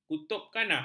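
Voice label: "kutubkhanah", Malay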